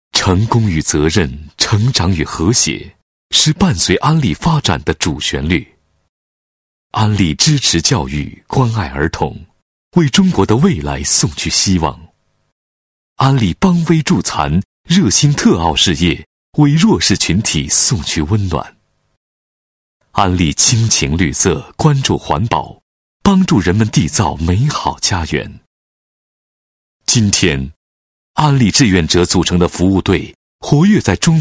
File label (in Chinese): 男 广告 形象宣传, 国语男声配音; 安利